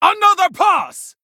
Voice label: Female Tauren Attack